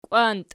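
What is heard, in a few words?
"kwanti", nominative, Archi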